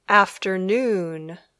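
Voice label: "afternoon", English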